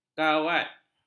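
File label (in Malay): "kawat", Malay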